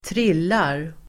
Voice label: "trillar", Swedish